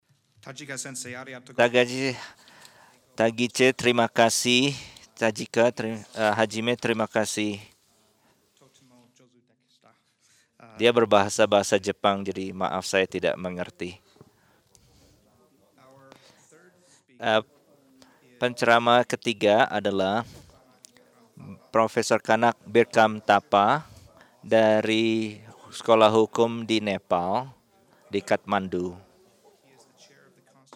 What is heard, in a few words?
Indonesian; iclrs symposium 2009